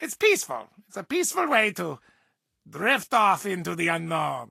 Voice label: English Voice